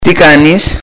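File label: "How are you", Greek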